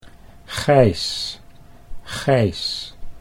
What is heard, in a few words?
"Gijs", Dutch